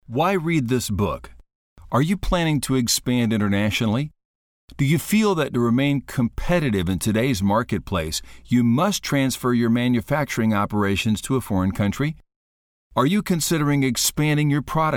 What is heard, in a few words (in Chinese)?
自然诉说 有声读物